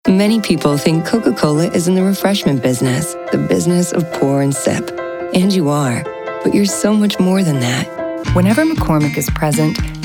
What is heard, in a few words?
Commercial